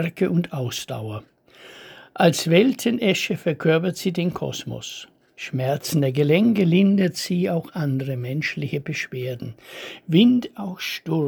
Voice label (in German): Die Esche, Gedichte eigene Lesung